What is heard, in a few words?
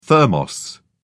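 "thermos", British English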